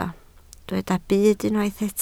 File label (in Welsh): "byd", Welsh